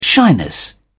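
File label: "shyness", British English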